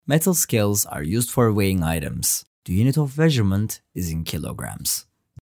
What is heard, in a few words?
english